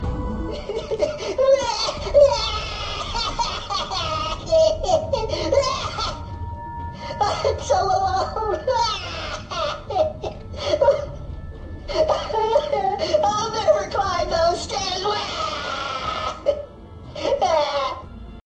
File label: crying; Borderlands 2; Claptrap